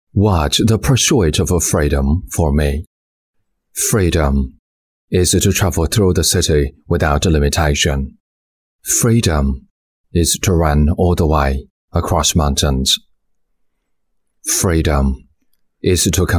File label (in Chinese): 英男; 由心而发 自由